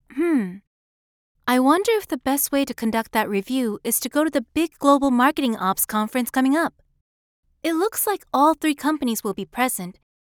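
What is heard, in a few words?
Japanese, female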